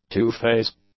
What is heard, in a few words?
"two-phase", English; adjectives